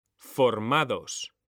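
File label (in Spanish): "formados", Spanish; mekanta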